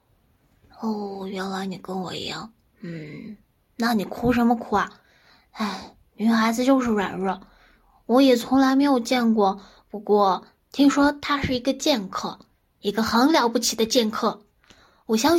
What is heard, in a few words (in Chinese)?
正太试音